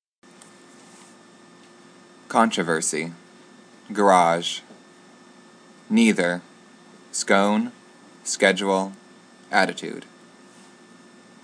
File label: Frankton Indiana male